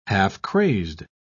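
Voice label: "half-crazed", British English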